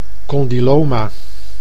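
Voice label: "condyloma", Dutch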